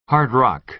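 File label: "hard rock", American English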